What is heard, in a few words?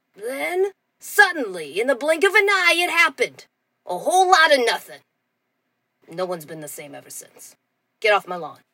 Evil villain grandma; slappy squirrel